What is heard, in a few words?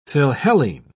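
"philhellene", British English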